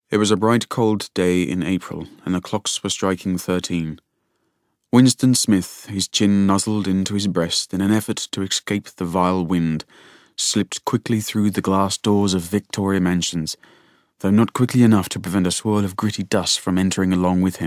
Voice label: uk audiobook